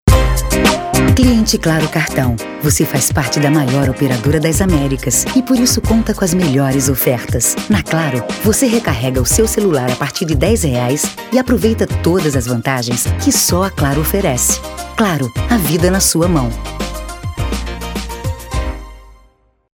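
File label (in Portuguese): Português - Brasileiro, TELEFONIA, Voz Padrão - Grave, Feminino; CLARO TELEFONIA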